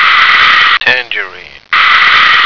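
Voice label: "tangerine", American English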